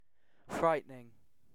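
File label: "frightening", British English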